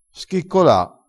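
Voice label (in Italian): "schiccola", dialettale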